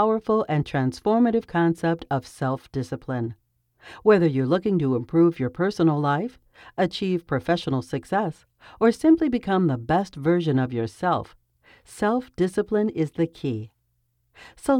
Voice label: Dry read from studio, Engaging, Authentic, Warm Female